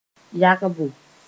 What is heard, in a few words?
"yagbo", Moore